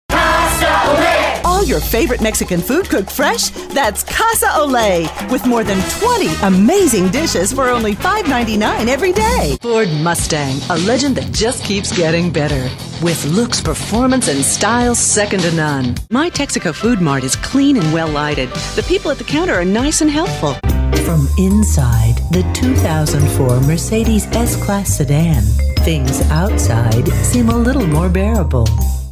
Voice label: English females